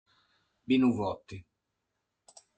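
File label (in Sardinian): "Binuvoti", Sardinian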